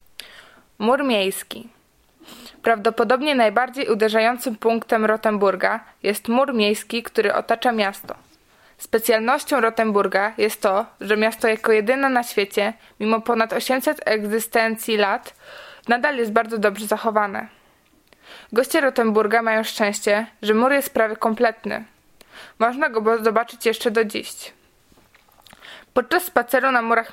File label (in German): Stadtmauer polnisch